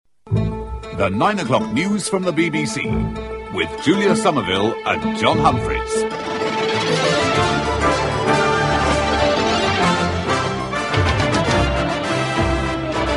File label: continuity